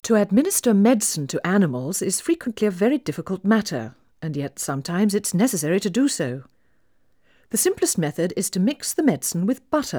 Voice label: Female speech English Mono output noised; 3bit